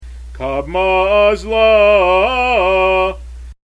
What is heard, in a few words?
haftarah kadma